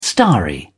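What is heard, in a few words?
"starry", British English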